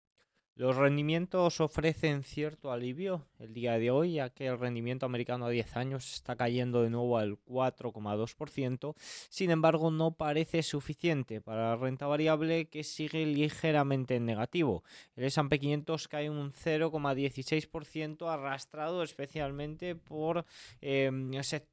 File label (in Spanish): radio